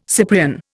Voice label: "Cyprian", Indian

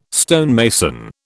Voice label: "stonemason", British

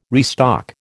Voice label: "restock", American

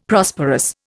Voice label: "Prosperous", Indian; Dictionary

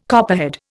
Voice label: "copperhead", Indian

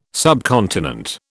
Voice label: "subcontinent", British

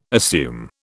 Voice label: "assume", British

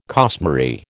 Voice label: "costmary", American

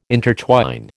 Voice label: "intertwine", American

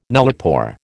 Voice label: "nullipore", American

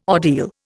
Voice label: "ordeal", Indian

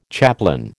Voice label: "chaplain", American

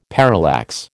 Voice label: "parallax", American

